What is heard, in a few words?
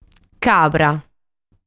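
"Cabra", Sardinian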